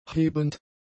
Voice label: "hebend", German; adjectives